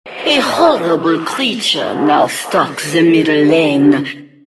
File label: announcer miniboss mid; Diretide 2012